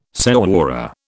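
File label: "señora", British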